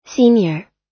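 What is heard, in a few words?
"senior", American English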